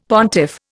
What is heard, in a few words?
"pontiff", Indian; Dictionary